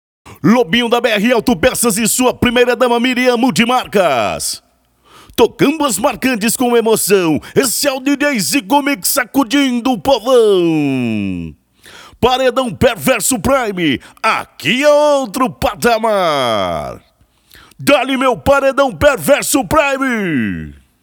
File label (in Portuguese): LOCUTOR RODEIO